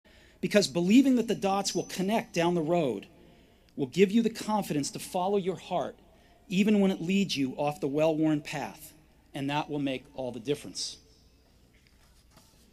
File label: Commencement Address; Steve Jobs; 2005; Stanford